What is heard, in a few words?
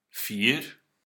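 "vier", German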